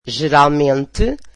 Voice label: portuguese usually